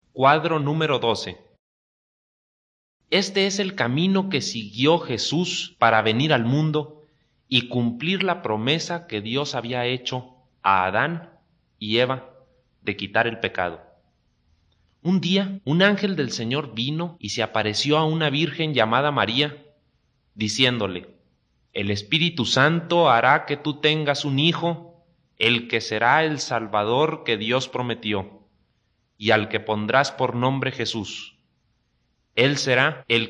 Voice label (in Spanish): good news spanish mexico